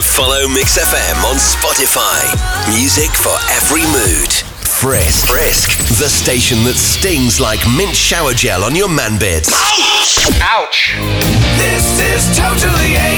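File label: British English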